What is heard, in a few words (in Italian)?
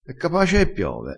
"e capace", crespinese